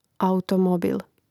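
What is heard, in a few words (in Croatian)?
"automobil", Hrvatski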